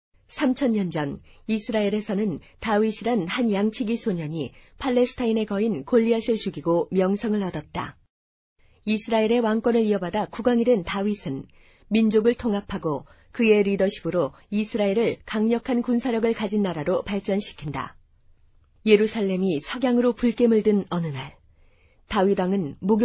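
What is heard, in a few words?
Korean, female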